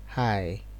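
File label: "high", Nigerian Pidgin, male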